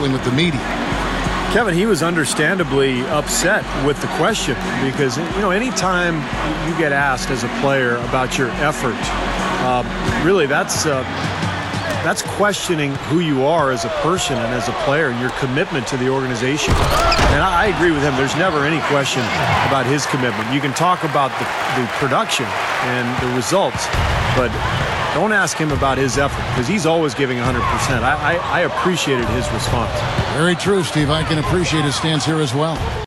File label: Press Conference Booth Reaction; nba2k13; 2ksports